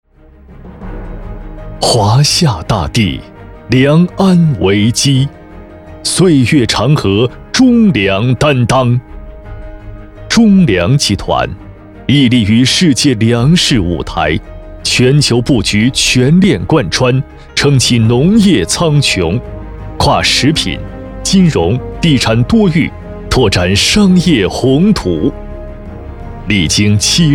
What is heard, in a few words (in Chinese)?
男 大气宣传片 磁性; 央企中粮集团